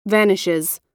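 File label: "vanishes", American English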